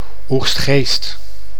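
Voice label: "Oegstgeest", Dutch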